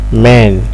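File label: "men", Nigerian Pidgin, male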